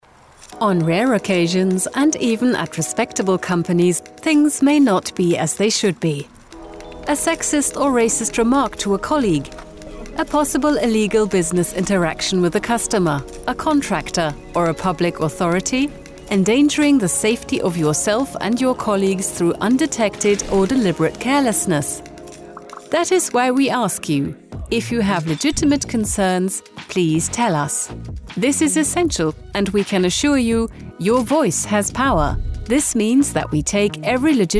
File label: EN E Learning; Olympus